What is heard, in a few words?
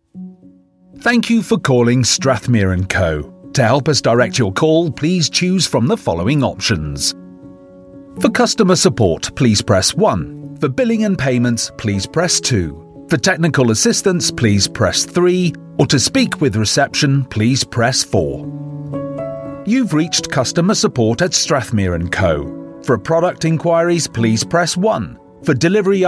telephone ivr